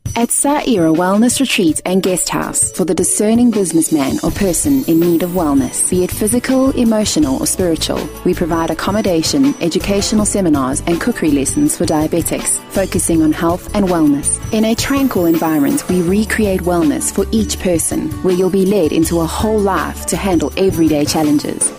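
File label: English South African, Female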